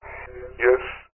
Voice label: horror ghost evp spirit box eerie male says yes glitchy recorded lo fi processed; zapsplat